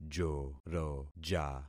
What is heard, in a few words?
"joroja", concat syllables; Affixing experiment